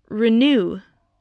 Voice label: "renew", English